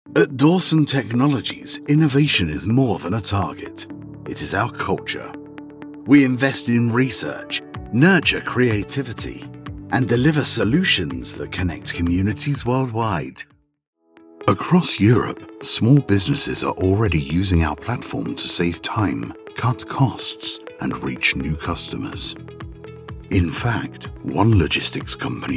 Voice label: Corporate Narration Reel